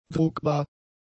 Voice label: "druckbar", German; adjectives

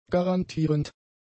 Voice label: "garantierend", German; adjectives

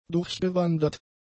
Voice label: "durchgewandert", German; adjectives

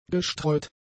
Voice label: "gestreut", german; adjectives

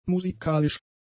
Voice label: "musikalisch", German; adjectives

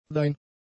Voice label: "dein", German; pronouns

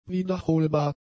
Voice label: "wiederholbar", German; adjectives

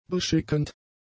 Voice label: "beschickend", German; adjectives

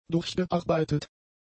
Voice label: "durchgearbeitet", German; adjectives

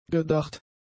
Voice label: "gedacht", German; adjectives

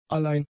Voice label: "allein", German; adjectives

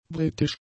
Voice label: "britisch", German; adjectives

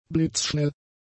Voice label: "blitzschnell", German; adjectives

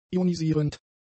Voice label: "ionisierend", german; adjectives

